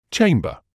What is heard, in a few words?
"chamber", medical english uk; CHAYM-buh; ˈtʃeɪm.bə